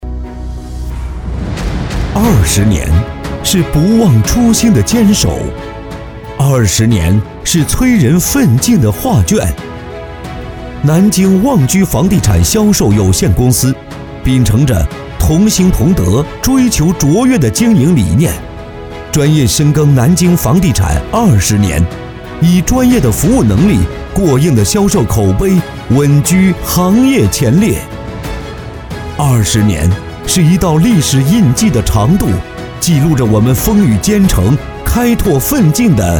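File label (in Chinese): 宣传片大气激情房地产; 南京旺居房地产; 企业宣传配音; 地产广告宣传配音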